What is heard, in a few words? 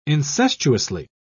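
"incestuously", British English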